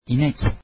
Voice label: turkish cow